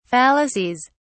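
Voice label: "fallacies", British English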